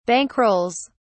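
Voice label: "bankrolls", British English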